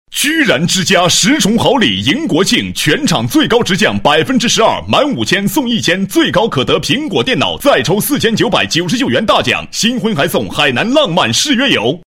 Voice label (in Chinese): 男7 促销广告, 国语男声配音; 居然之家; 全能型